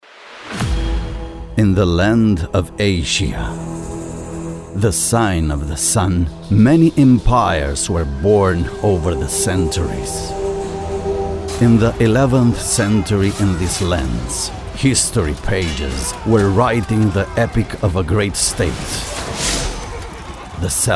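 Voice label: RO AccEN, Romanian accented English; GoLocalise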